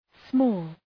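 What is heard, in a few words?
"small", English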